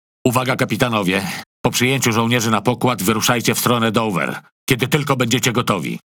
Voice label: MAIN TASK PHRASE, Languages PL; PVE; World Of Warships